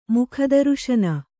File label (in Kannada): "ಮುಖದರುಶನ", Kannada; mukha daruśana; noun